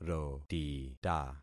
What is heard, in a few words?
"rotita", concat syllables; Affixing experiment